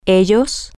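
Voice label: them, Spanish, female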